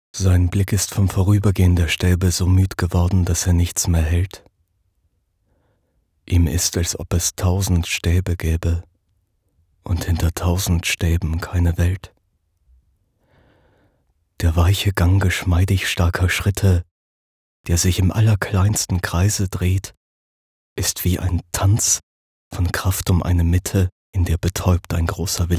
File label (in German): Sprechprobe GEDICHT; RILKE